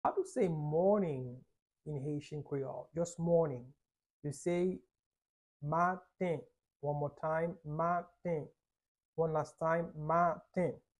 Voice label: "Maten" Haitian Creole pronunciation by a native Haitian teacher; How to say Morning in Haitian Creole